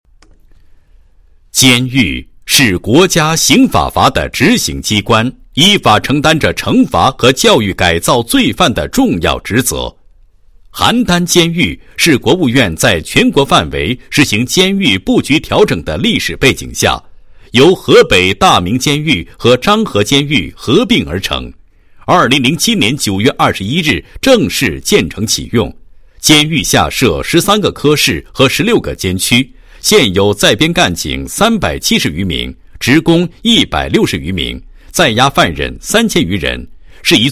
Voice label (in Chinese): 男 解说; 为了法律的崇高 监狱